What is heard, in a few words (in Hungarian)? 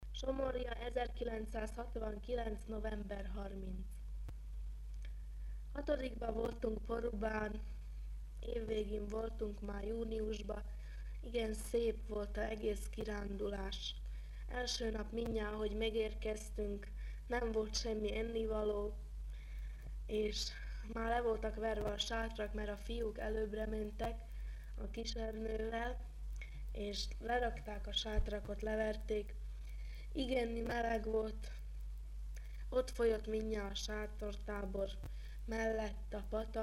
nyelvjaras gyujtesek